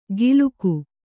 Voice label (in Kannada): "ಗಿಲುಕು", Kannada